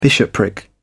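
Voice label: "bishopric", British English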